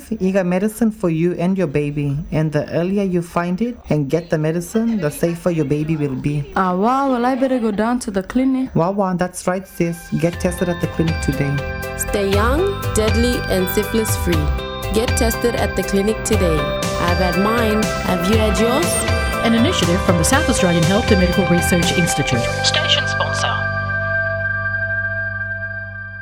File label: Syphillis pregnancy Ad; TISMA